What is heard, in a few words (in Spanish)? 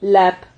"lap", Silabas